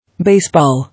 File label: "Baseball", English